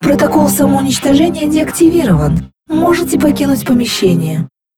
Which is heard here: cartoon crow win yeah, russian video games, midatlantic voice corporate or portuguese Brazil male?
russian video games